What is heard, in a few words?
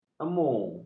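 "emu", Malay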